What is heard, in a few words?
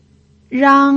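"rang", pinyin, tone 1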